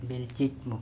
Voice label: "belchitmo" bird, andamanese

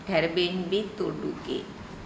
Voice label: put on forehead THEr bEngbi TuTuke, Andamanese

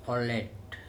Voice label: "pHoleT", andamanese; manta ray

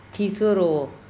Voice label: "ThiSoroa", Andamanese; my boat

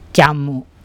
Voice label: "camu", andamanese; clown fish

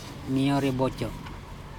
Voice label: "meorebocO", andamanese; pebbles

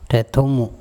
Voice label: "ThEthomo", Andamanese; my flesh

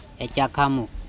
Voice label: "ecakHamo", Andamanese; old man

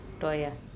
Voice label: "TOya", Andamanese; stand

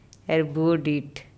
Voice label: "ErbuoDiTh" earholes, andamanese

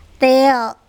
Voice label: "teo", andamanese; lizard

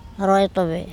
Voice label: "roytObe" stand, andamanese

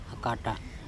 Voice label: "akaTa", Andamanese; daughter

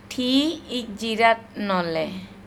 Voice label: "THi itjira nOle", andamanese; tell me clearly

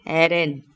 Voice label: "Eren", Andamanese

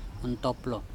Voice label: one ontoplO, Andamanese

